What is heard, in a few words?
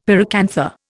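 "pyracantha", Indian English; Dictionary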